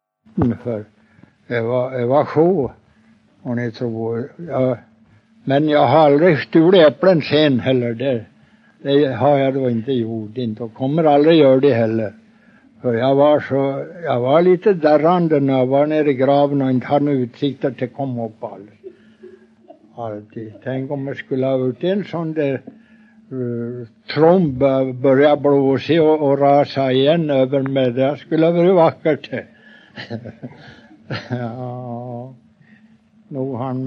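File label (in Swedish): Huddunge Uppland, dialekter; Folkminnen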